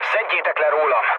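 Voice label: Hungarian aircraft damaged mood low, speech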